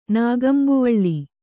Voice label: "ನಾಗಂಬುವಳ್ಳಿ", Kannada